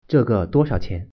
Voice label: how much mandarin